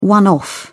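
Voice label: "one off", English, British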